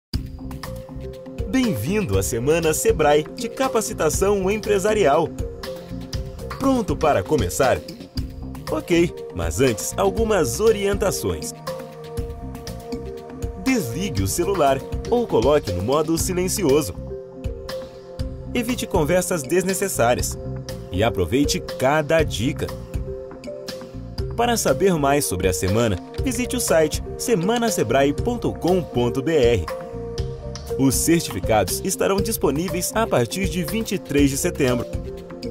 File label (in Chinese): 葡萄牙语男 轻快语调